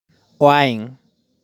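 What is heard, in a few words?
"Wine", pronunciation; Bebidas; drinks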